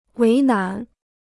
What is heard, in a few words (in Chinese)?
"为难", Chinese